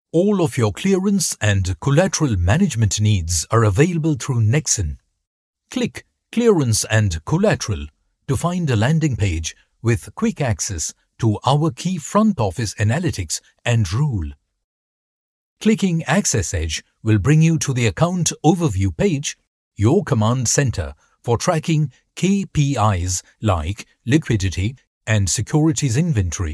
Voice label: E LEARN BRITISH ENGLISH